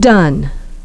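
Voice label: "done", English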